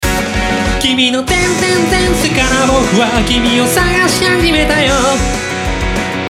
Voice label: voice commentary